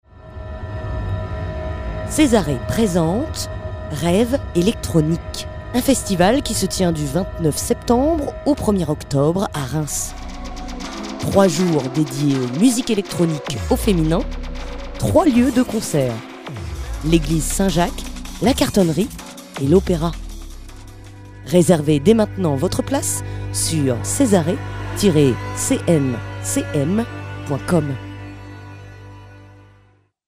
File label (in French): spot; REVES ELECTRONIQUES